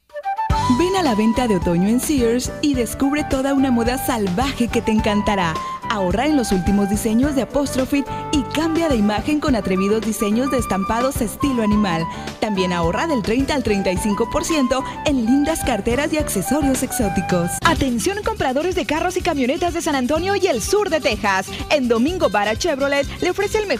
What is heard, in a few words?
spanish females